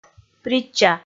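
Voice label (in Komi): "притча" Komi, female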